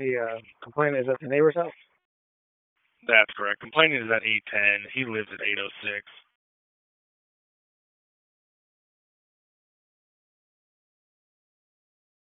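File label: Raleigh Police Northeast District Dispatch, p25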